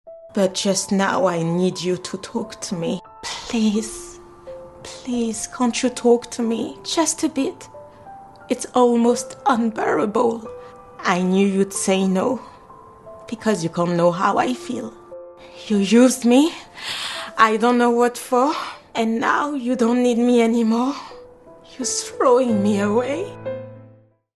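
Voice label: French African, Accented English; GoLocalise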